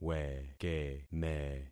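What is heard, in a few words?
"wekeme", concatenated syllables; Affixing experiment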